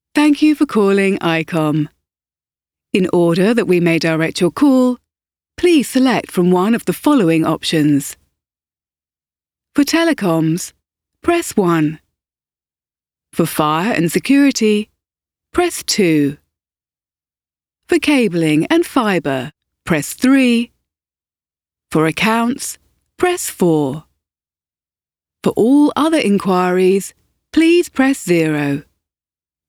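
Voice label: ivr, voiceover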